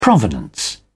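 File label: "provenance", British English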